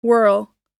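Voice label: "whirl", American English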